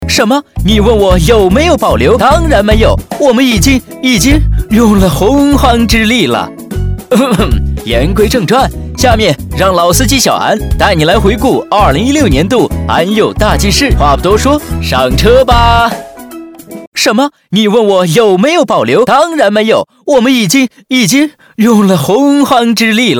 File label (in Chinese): 轻松飞碟说, 国语男声配音; 安佑集团